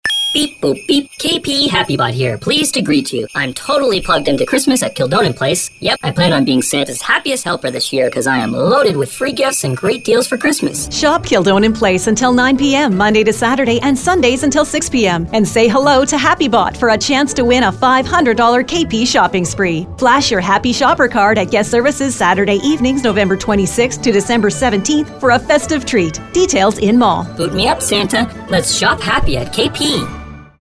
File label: holiday radio spot; happybot